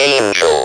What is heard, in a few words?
speak and spell "ANGEL"; WORDS